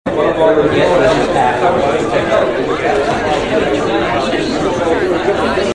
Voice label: people murmuring in hall

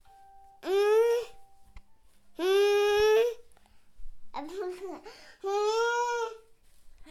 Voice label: child speaking indistinctly

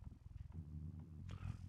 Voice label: "technology", demonic techno voice

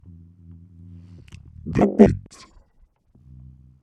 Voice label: demonic techno voice "the beat"

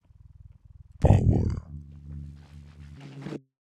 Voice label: demonic techno voice 125

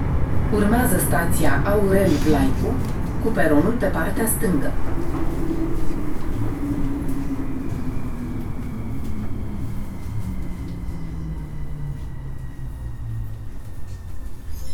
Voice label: subway voice romanian - C F A sharp; aurel vlaicu

